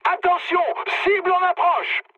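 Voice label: near waypoint, mood high, French; War Thunder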